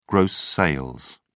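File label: "gross sales", British English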